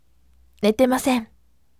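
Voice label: voice, firm; netemasen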